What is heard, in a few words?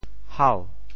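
"hau2", Teochew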